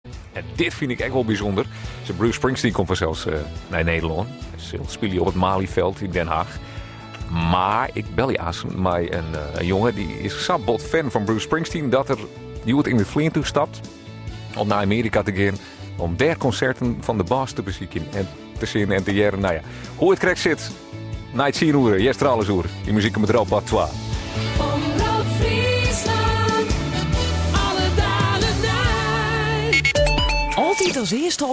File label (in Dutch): aankondiging; bosstime media; omrop fryslan